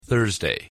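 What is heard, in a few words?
"Thursday", English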